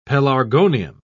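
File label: "pelargonium", English, British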